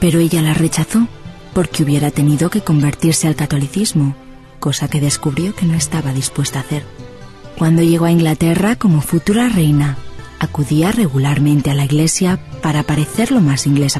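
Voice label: Spanish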